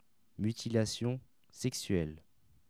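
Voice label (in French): "mutilation sexuelle", French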